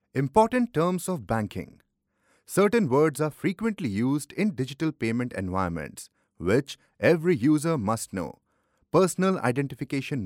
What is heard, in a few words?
male indian voice over narrative